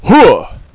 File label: hooah, radio bot; cstrike